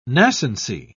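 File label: "nascency", British English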